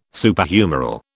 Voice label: "Superhumeral", British

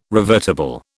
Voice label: "revertible", British

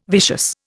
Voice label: "vicious", Indian

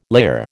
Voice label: "layer", American

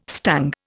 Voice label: "stung", Indian

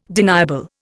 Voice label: "deniable", Indian

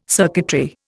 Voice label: "circuitry", Indian

